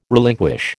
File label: "relinquish", American